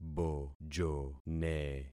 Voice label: "bojone", concat syllables; Affixing experiment

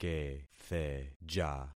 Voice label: "ketheja", concat syllables; Affixing experiment

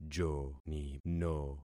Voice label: "jonino", concat syllables; Affixing experiment

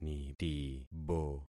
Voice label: "nitibo", concat syllables; Affixing experiment

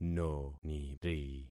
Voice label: "noniri", concat syllables; Affixing experiment

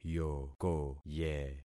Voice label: "yokoye", concat syllables; Affixing experiment

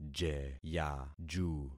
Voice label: "jeyaju", concat syllables; Affixing experiment

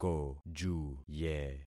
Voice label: "kojuye", concat syllables; Affixing experiment

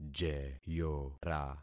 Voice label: "jeyora", concat syllables; Affixing experiment

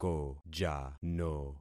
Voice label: "kojano", concat syllables; Affixing experiment

